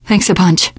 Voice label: thanks, teen girl

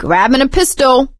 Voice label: take pistol, survivor voice; source engine; left 4 dead 2; producer

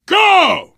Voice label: emphatic go, survivor voice

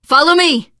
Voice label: follow me, teen girl; voice; source engine; left 4 dead 2; survivor

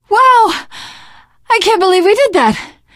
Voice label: hurrah, teen girl, survivor voice

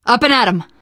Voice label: revive friend, survivor voice teen girl

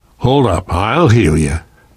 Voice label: heal other, left4dead2 survivor voice namvet

